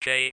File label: speak and spell J; Deltarune Chapter 2